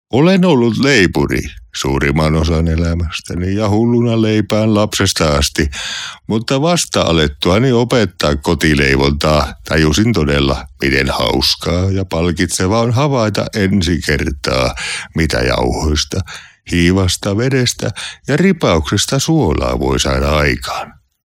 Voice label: Character Voice "Old Man"